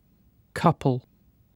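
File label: "couple", British English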